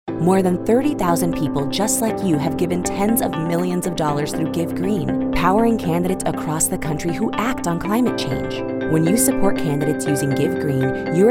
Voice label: NonProfit Political Inspiring Motivational Engaging Call To Action Female English